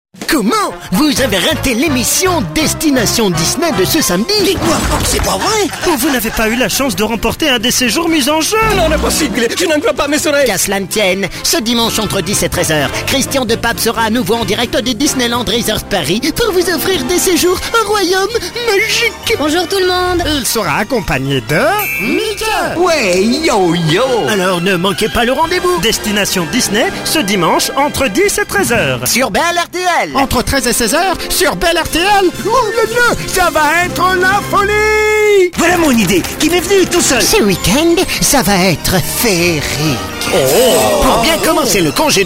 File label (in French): Habillage Radio Cartoon; RTL Bel